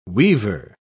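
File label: "weaver", British English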